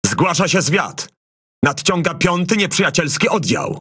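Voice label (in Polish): ENEMY REINFORCEMENT LEFT SPAWN, Polish; PVE; World Of Warships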